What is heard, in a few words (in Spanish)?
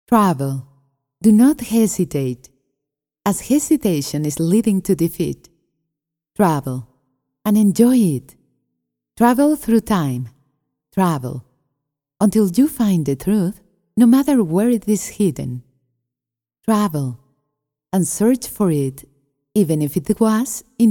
locutora mexicana; mexico